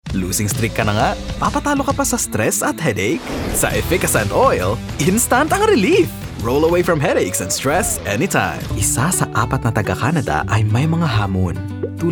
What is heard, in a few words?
Tagalog Commercial